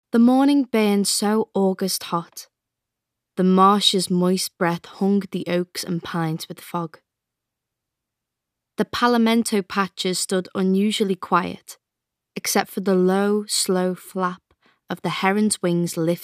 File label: Narrative